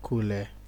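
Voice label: "kule", Nigerian Pidgin, male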